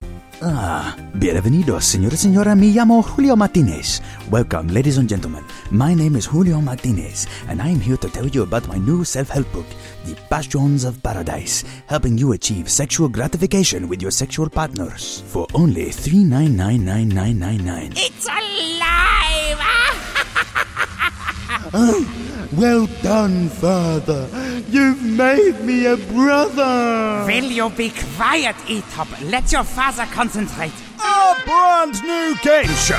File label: Character